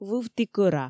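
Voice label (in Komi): "вывті кӧра", Komi, female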